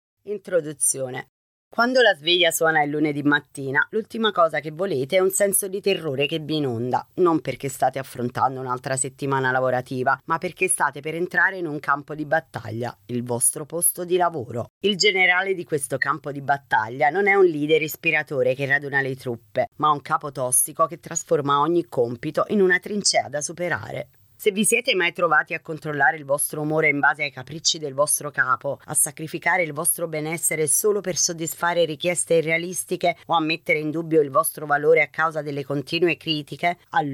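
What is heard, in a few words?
Italian Audiobook; GoLocalise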